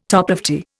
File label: "toplofty", Indian